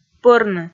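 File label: "пӧрны", Komi, female; kpv audio dict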